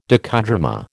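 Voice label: "docudrama", American English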